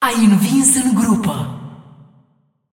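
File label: announcer female; ro ro; win bracket generic; Clash; tournaments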